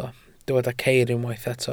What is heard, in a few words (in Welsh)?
"ceir", Welsh